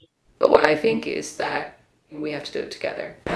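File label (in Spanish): Voice Acting, Spanish, female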